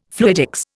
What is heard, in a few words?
"fluidics", Indian